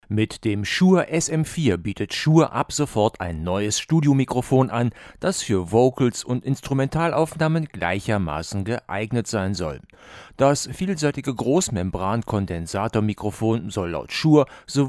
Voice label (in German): Shure SM4 mit Popfilter 25cm